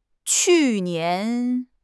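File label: "qu4 nian2", Mandarin Chinese; HSK2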